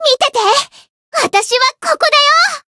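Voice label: Voice variable chant, Japanese; mana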